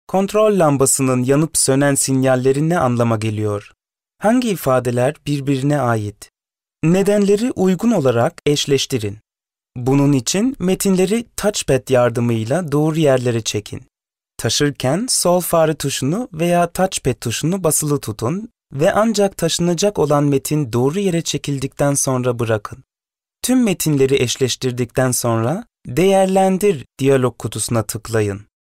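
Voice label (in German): Turkish, Kommentar, Neutral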